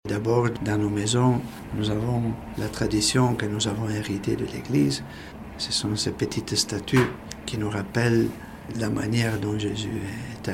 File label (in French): VOX POP PREPARATIFS FETE NOEL; FR; CHINA